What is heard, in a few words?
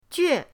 "jue4", pinyin